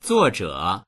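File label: "zuo4zhe3", Mandarin Chinese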